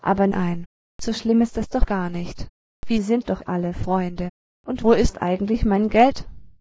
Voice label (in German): frau